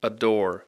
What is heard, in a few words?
"adore", English